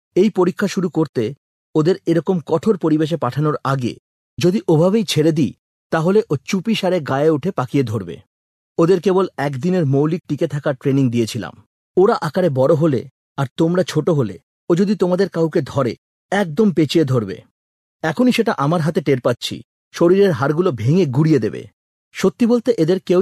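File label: Bengali, male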